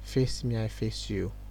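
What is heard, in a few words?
"face me I face you", Nigerian Pidgin, male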